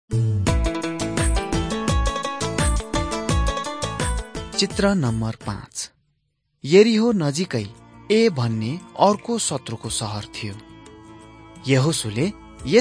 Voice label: victory through god nepali